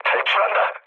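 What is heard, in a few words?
Korean bailout mood low, speech; War Thunder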